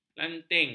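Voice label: "lanting", Malay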